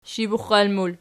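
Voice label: sshibuxal plural, Archi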